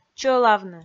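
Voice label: "чӧлавны", Komi, female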